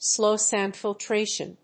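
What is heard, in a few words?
"Slow sand filtration", English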